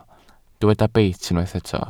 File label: "beit", Welsh